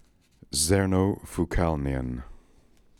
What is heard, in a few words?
"Zernofookalnian", pronounciations; Fourth Way; Beelzebub